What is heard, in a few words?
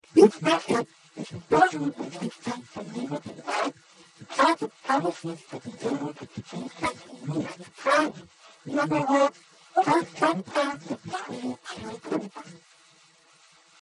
english sentence